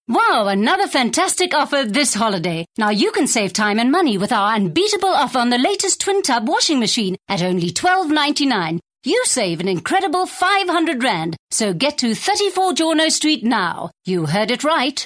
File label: English Hard Sell